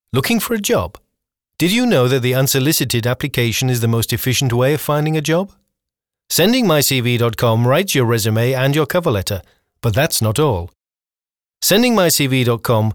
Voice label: Voix anglaise

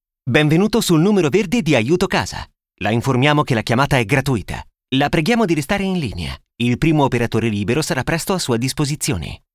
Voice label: Voix italienne

